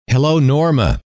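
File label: hello, male; trainer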